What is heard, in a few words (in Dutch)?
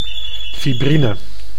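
"fibrine", Dutch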